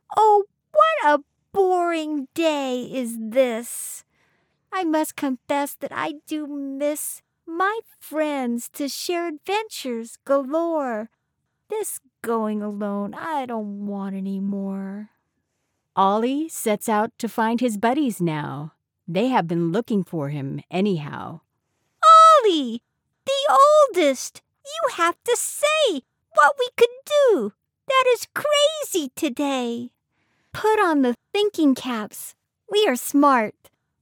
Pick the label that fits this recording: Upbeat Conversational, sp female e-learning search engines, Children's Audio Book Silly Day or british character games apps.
Children's Audio Book Silly Day